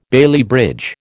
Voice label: "Bailey bridge", American

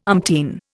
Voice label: "umpteen", Indian

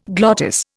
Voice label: "glottis", Indian

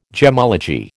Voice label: "gemmology", American

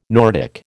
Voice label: "Nordic", American

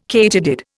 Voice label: "katydid", Indian

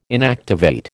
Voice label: "inactivate", American

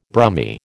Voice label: "Brummie", American

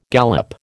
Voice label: "gallop", American

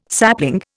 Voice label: "sapling", Indian

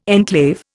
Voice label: "enclave", Indian

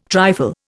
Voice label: "trifle", Indian

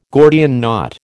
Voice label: "Gordian knot", American

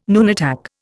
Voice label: "nunatak", Indian

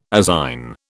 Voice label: "azine", British

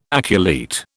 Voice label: "aculeate", British

